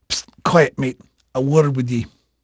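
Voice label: English male, voice; Pirates Of The Caribbean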